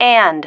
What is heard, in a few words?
"and", female